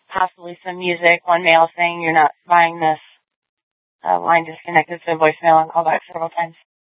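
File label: Police Department North Dispatch, scanner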